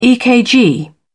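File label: "ekg", British English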